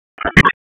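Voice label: Raleigh Police Special Operations Tactical, p25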